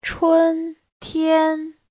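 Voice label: "chūntiān", Mandarin Chinese; (danh từ) mùa xuân; 春天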